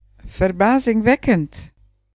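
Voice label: awesome, Finnish; gcompris-data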